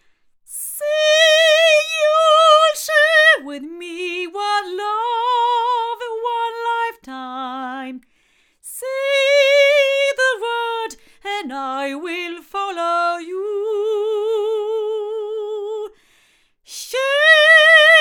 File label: female